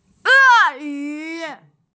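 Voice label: "e yu", Mandarin Chinese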